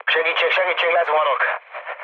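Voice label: Hungarian aircraft destroyed mood low, speech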